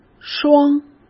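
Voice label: "霜", Mandarin Chinese; chinese-flashcards; hsk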